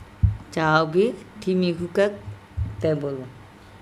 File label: caobi Thimikhukak tEbolO dog ran away to the jungle, andamanese